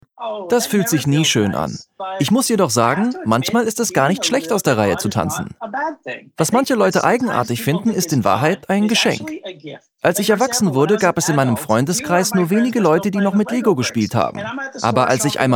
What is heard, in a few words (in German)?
Podcast Voiceover troestend; LEGO